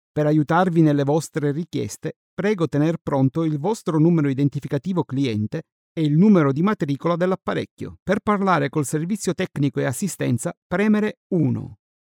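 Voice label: Italian male voice over, narrative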